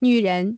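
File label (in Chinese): "女人" Chinese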